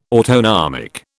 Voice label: "autonomic", British